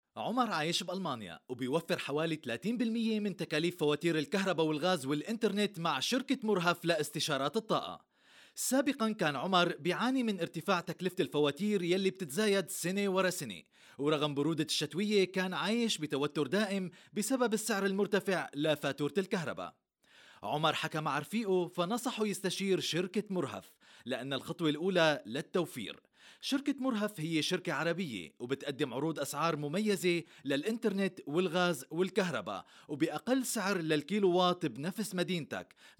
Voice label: arabic corporate videos

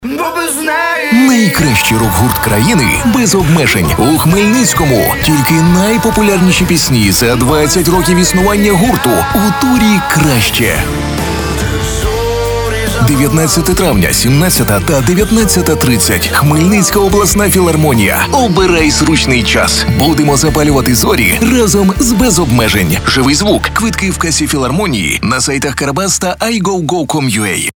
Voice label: ukrainian promos

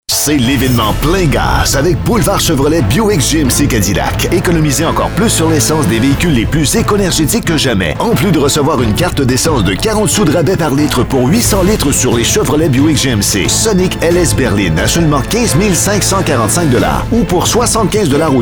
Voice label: french canadian automotive

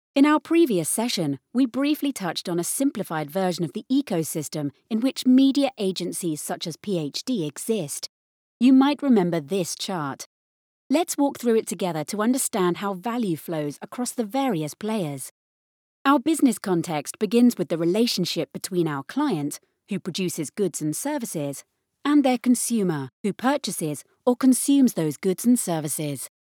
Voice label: english british e-learning

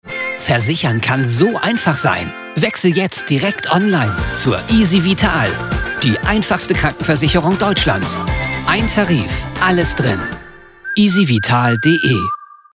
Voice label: german

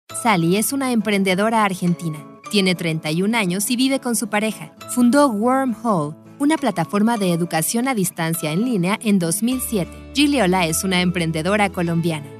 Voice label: spanish mexican narration

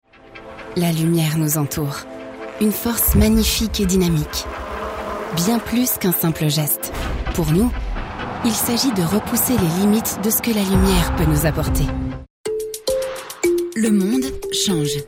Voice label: french corporate videos

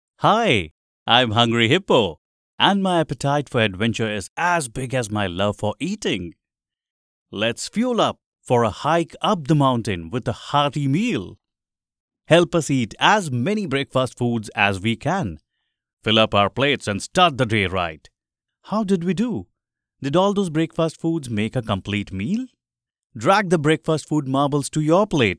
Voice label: english indian animation

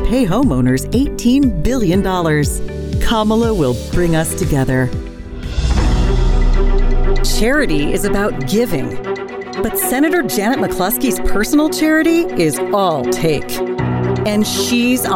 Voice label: english american political ads

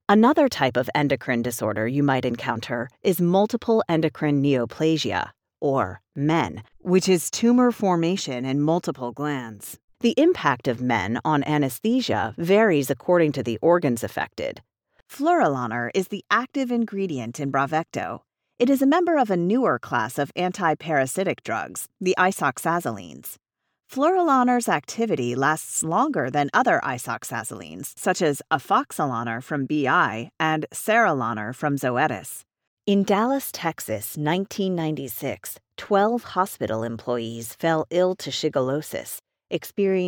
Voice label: english american medical narration; English (British); United States

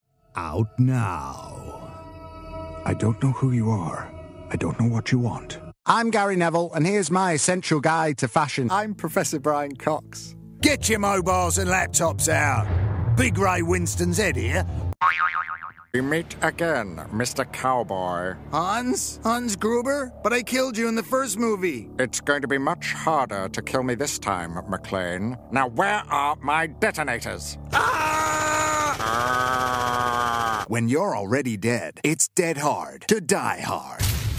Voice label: english british animation

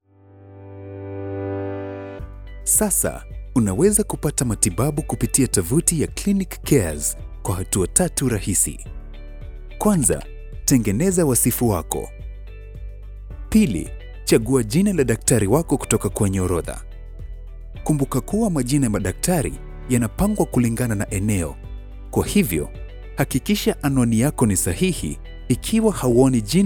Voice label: swahili e-learning

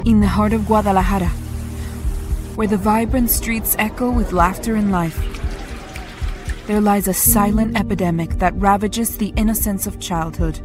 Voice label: english american documentaries; professional bilingual (English and Spanish) voice actor

